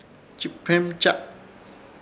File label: "Chɨpermcha", Ishir Chamacoco; pajarito ave